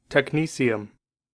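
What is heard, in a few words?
"technetium", US English; pronunciations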